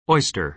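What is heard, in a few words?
"oyster", American English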